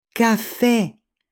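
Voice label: "cafe", Spanish, female; AppLenguaje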